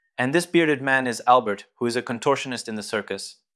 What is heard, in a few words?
Voice Acting es M